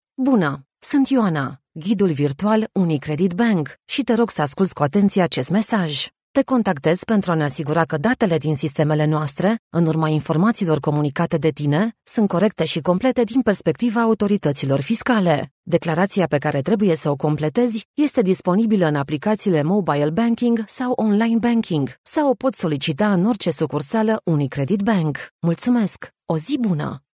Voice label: romanian telephone